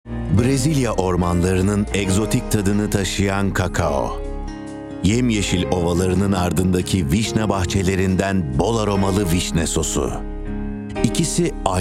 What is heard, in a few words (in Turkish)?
duygusal mutive; seslendirme